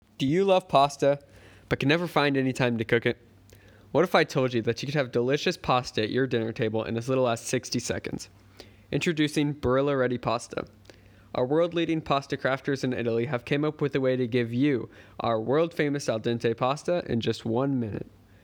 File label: commercial